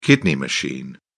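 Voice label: "kidney machine", British English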